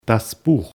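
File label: "buch", German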